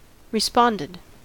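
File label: "responded", US English; pronunciations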